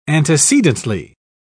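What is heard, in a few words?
"antecedently", British English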